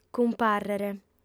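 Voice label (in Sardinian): "cumpàrrere", female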